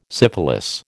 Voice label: "syphilis", American